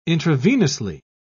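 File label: "intravenously", English, uk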